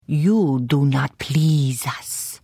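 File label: Hello, Khajiit female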